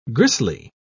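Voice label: "gristly", British English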